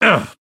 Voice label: hurt; 巴斯光年